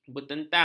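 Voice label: "bertentang", Malay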